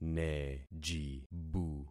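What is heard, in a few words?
"nejibu", concatenated syllables; Affixing experiment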